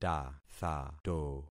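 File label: "tathato", concatenated syllables; Affixing experiment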